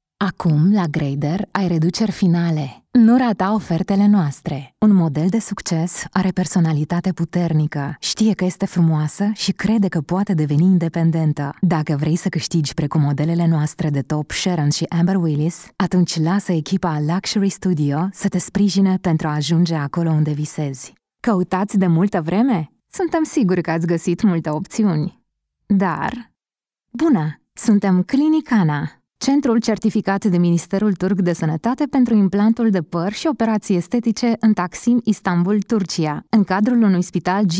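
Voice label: Romanian, female; lektoring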